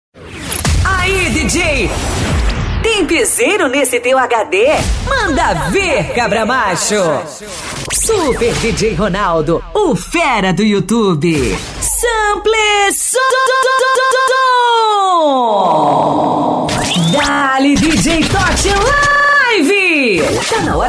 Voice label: ♀, vozes para vinhetas

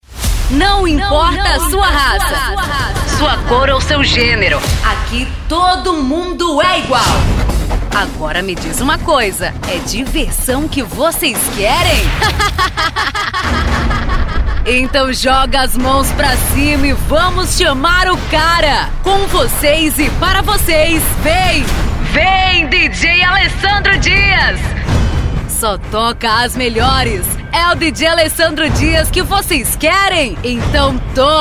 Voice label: ABERTURA DJ LOCUTORA

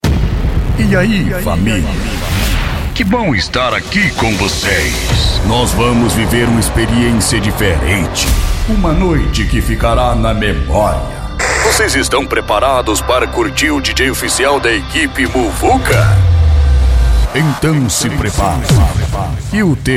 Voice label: ABERTURA DJ LOCUTOR

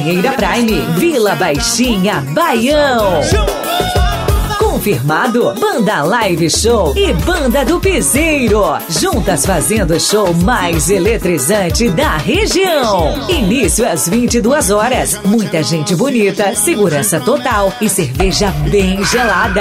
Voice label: LOCUTORA BAILE DE NATAL

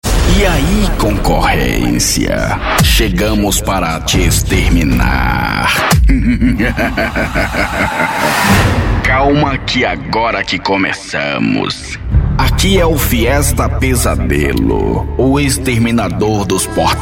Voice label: ABERTURA LOCUTOR; FIESTA PESADELO

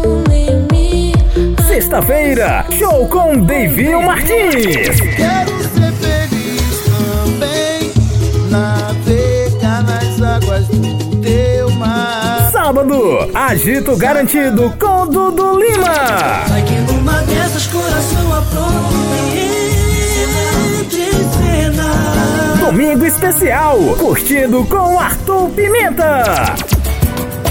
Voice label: locutor fim de semana imperdível

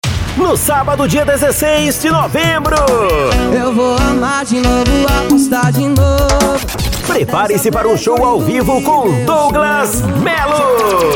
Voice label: locutor, chamadas; Espetinho; Espetinho do Galego

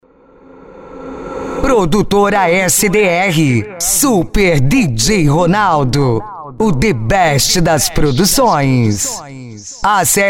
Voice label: VINHETA OFF ♀; São vinhetas sem efeitos sonoros de fundo, voz tratada, equalização e limpeza de ruídos

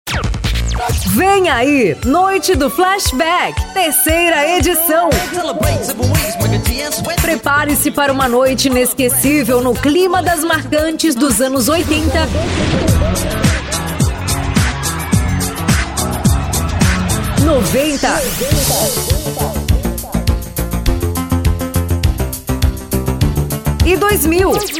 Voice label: locutora, chamadas; NOITE DO FLASHBACK

